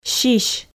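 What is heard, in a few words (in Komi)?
"сись", Komi, female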